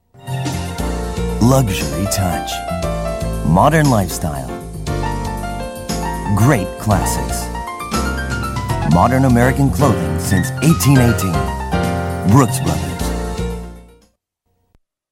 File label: usa man